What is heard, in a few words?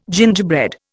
"gingerbread", Indian